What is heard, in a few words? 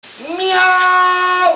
Turkish man yelling meow at an egg Ringtone